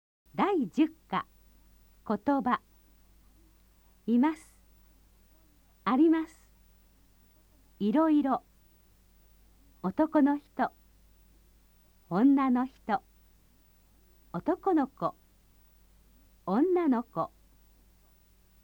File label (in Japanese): nihongo vokabeln